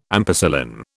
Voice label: "ampicillin", British

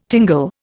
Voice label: "tingle", Indian

